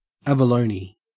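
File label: "abalone" Australian English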